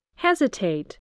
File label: "hesitate", English, spelling